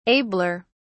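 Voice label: "abler", British English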